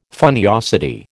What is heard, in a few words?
"funniosity", American